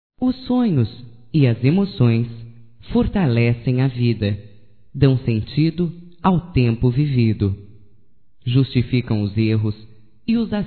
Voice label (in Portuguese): FELICIDADE, fem; Amizades; Telemensagens 2017